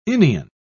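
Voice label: "inion", British English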